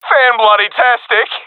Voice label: cheer, professional epic; vo; Source Model Packs; Counter Strike Model Pack; CS2